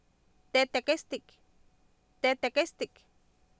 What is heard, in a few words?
"teetekestik" Nahuatl, Oapan, female; Oapan minimal pairs 2000